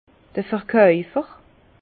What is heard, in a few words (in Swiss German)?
"verkaufer", Bas Rhin; vin; vendeur